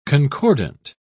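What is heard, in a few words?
"concordant", British English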